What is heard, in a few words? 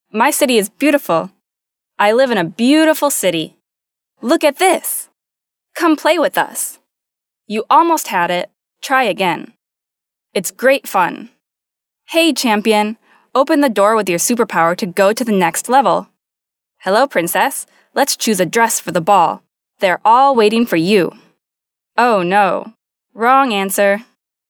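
US female children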